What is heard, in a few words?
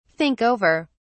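"think over", British English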